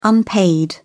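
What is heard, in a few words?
"unpaid", British English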